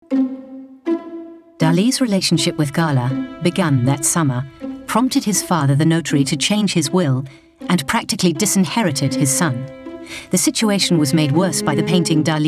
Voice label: documentary, English UK, female

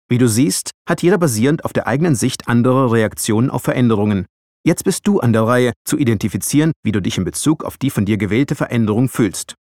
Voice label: elearning, german, male

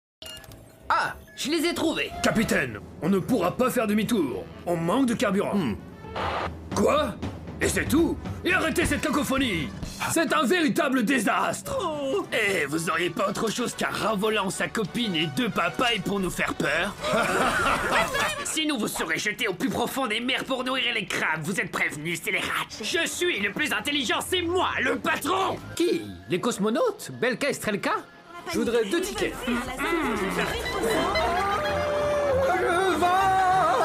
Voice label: animation, french, male

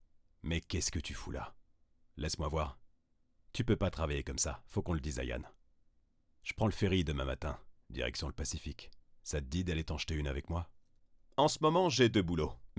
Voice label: dubbing, French, male

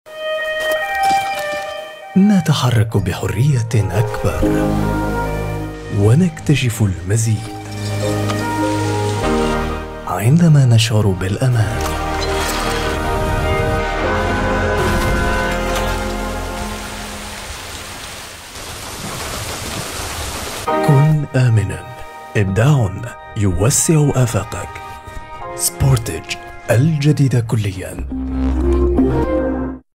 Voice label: ARABIC MALE commercial

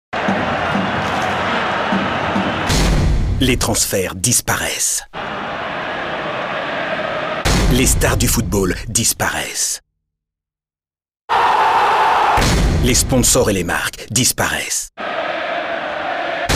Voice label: commercial, French, male

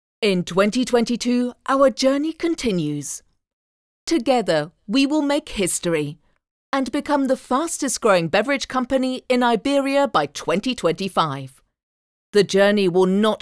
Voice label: CORPORATE, ENGLISH UK, FEMALE

